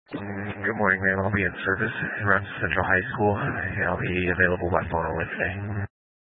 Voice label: Sheriff Dispatch, scanner; Mesa County